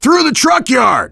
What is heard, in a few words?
directional, voice, biker; Left 4 Dead 2; left4dead2 dlc2; survivor